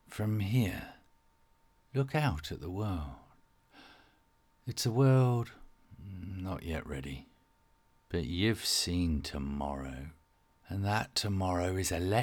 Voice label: Inner thought Electric

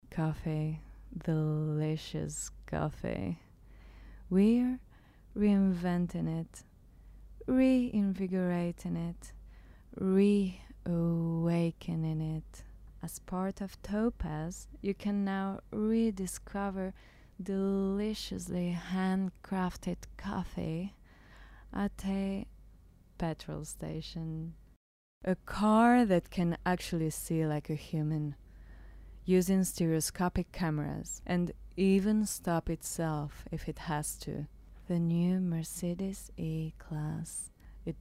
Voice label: ENG Commercial

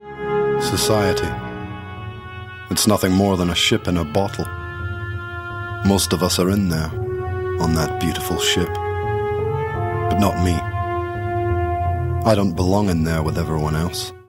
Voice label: Drama Narration

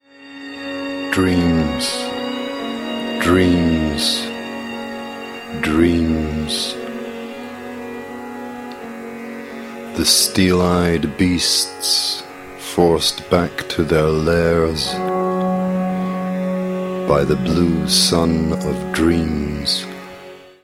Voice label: Audiobook; Wave Of Dreams

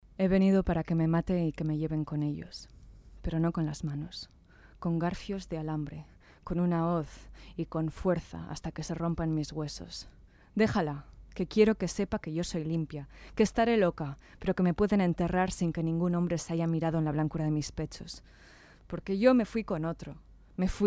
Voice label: SPANISH Drama

